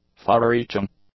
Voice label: "far-reaching", english; adjectives